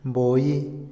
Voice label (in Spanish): "negro", Otomí, hombre; Percepción; INALI